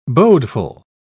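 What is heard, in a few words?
"bodeful", British English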